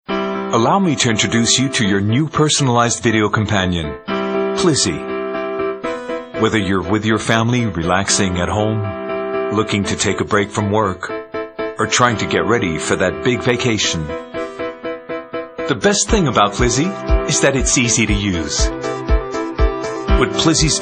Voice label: US naturel amical voix off voice over; Plizy for ipad

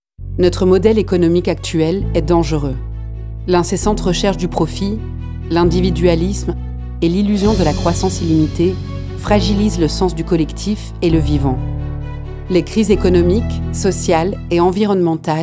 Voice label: film manifeste Engagé sérieux; licoornes

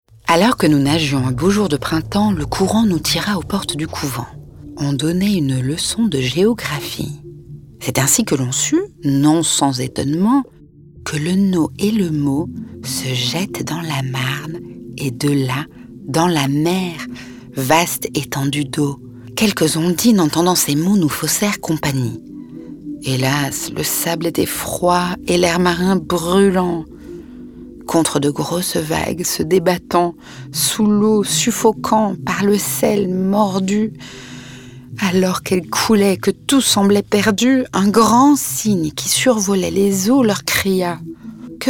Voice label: FR narration audioguide; Chalon

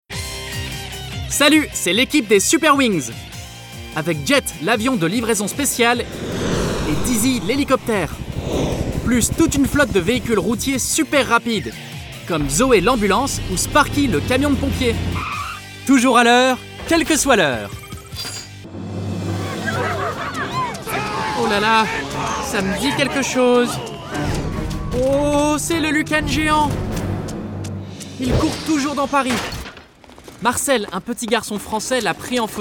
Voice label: Animation FR; Super Wings

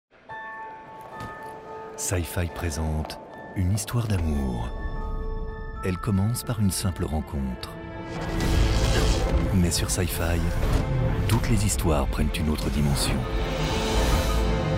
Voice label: proche sympathique; SyfyBrand

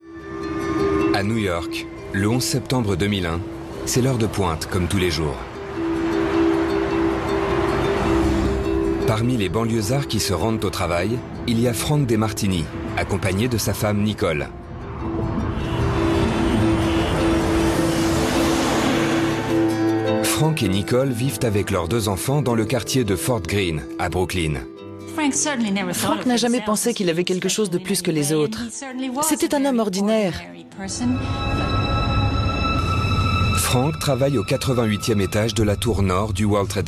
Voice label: Docu narr; 88e etage RMC

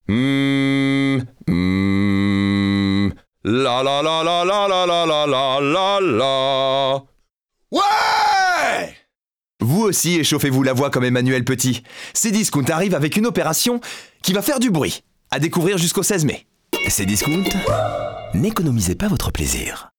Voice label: Spot Le Cri; CDiscount

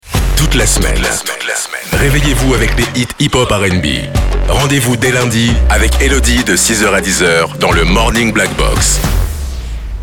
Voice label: Habillage TV Radio Morning FR; Blackbox

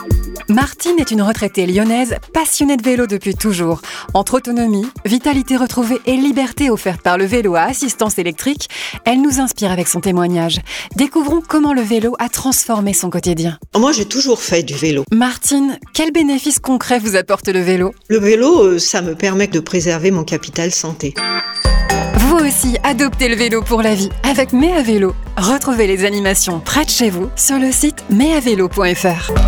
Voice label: journalistique chronique; MAI A VELO Ep 3

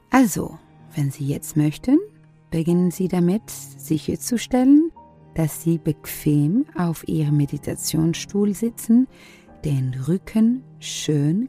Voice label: Allemand Méditation; Zenfie

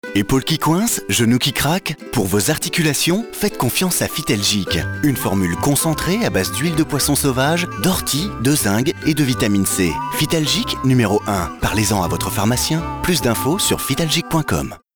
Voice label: Voix souriante tonique spot radio; Phytalgic

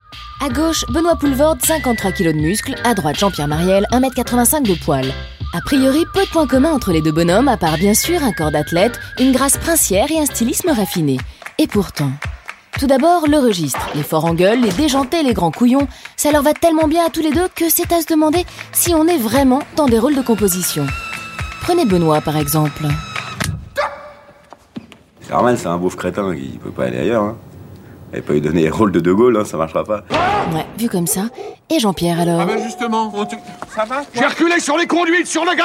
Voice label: reportage; poelvorde

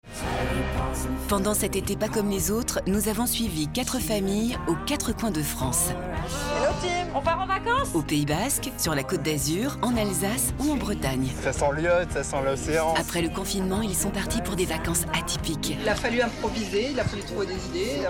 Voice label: grand reportage

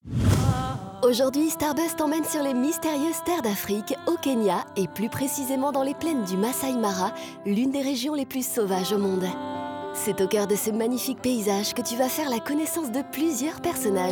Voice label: narration; Emission Star Buzz

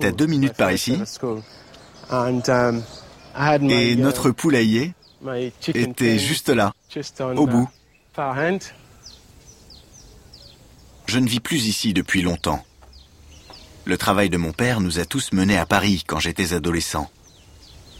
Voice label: Fier souriant narration voice over Nature sauvage du Congo; France 5

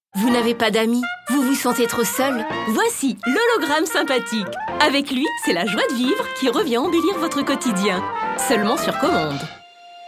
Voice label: PUB, voix speciale

